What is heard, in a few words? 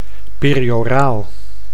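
"perioraal", Dutch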